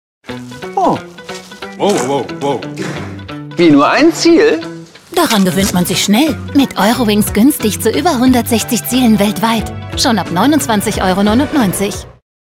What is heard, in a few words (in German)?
Feststimme TV Spot OFF WERBLICH JUGENDLICH; Eurowings; Ziele